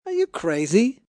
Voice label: Are You Crazy, Attitude, male: 'Are you crazy?', (human voice speaking); Talking Sound Effects; Sound Effects:Voice; VoiceBox